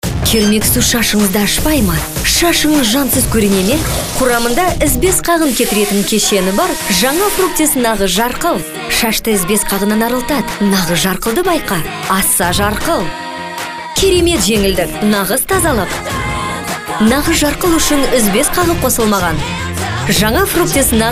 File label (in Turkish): Kazakca Kadin, Kadın Ses; seslendirme